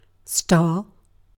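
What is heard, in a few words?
"star", British English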